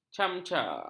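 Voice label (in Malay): "camca", Malay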